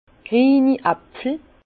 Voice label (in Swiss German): "grieni apfel", Bas Rhin; vin; pommes vertes